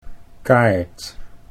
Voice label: "kaart", Dutch

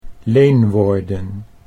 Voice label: "leenwoorden", Dutch

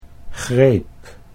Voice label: "greep", Dutch

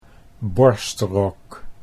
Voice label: "borstrok", Dutch

